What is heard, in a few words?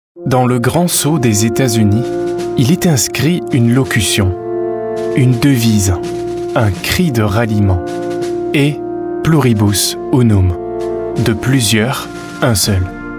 french voice over male narrator